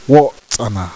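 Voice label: "bird", Zuni language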